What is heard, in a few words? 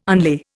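"unlay", Indian English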